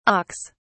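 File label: "auks", British English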